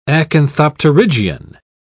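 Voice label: "acanthopterygian", English, British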